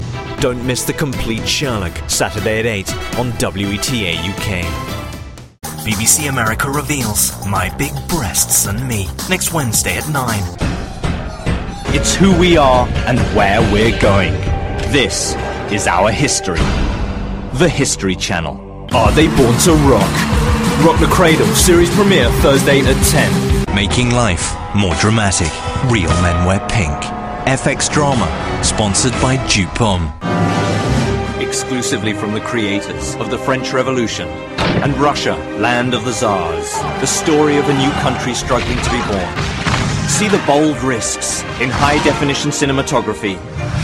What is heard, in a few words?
Male Voice Over Talents Promo British